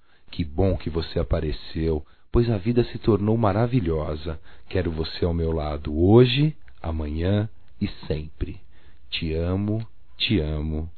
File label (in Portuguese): ANJO SUBLIME(M); Românticas